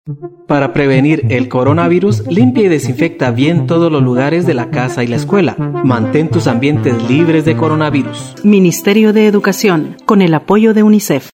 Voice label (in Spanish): espanol; Ambientes limpios; prevencion